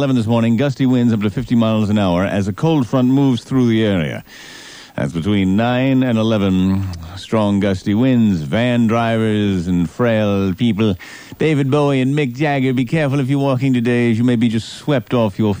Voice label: Weather alert; Greaseman